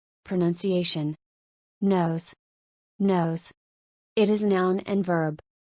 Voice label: nose pronunciation

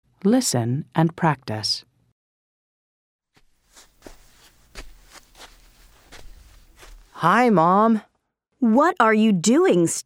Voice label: Conversation; Interchange 4th Ed

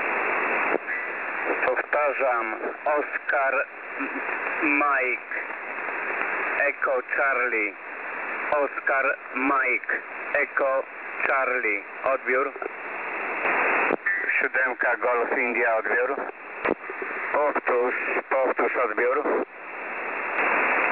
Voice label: polish voice

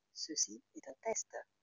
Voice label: voice recorder

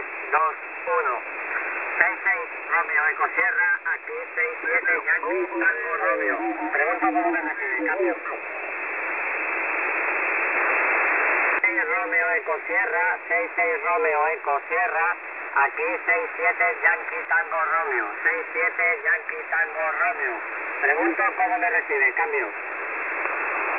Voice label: spanish voice

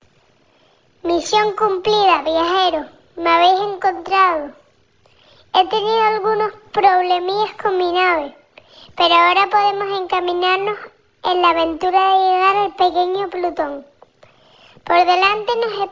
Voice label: voice changer

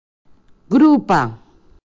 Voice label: voice changer

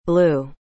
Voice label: "blue" "azul"

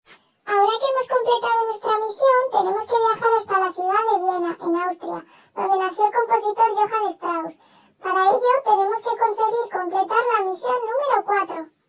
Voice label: voice changer

